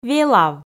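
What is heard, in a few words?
"велав", Komi, female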